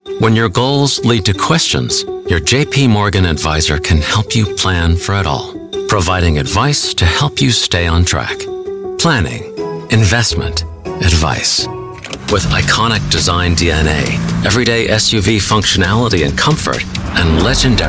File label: Commercial, Voice Actor, Warm